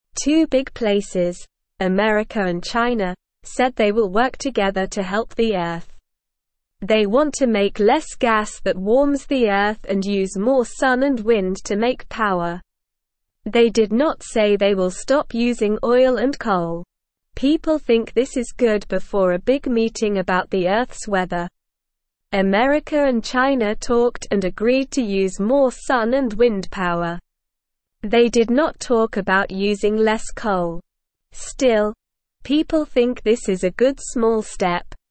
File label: Beginner SLOW Reading, English; English Newsroom; America and China Join Forces to Help the Earth